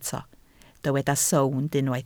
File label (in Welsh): "sownd", Welsh